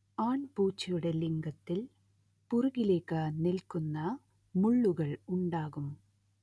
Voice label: Malayalam; Meta mms ASR